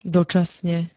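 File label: "dočasne", Slovak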